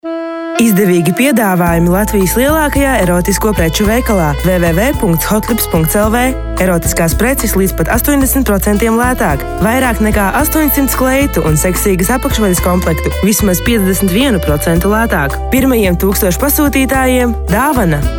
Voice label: Latvian female

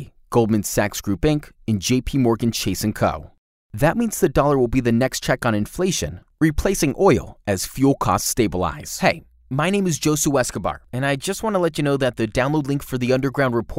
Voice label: English American

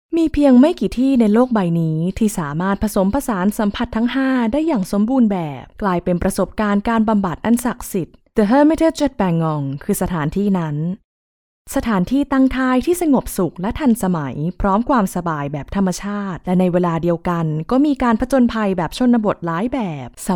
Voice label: Thai

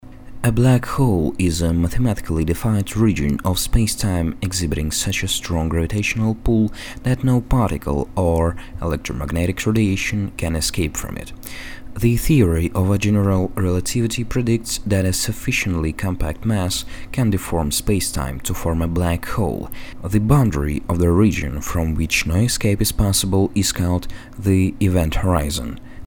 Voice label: En, golosa treki

